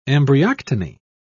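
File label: "embryoctony", British English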